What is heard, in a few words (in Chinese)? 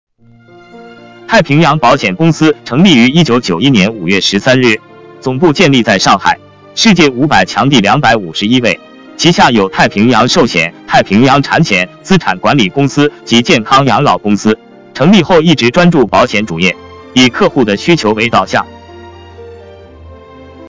男 公司介绍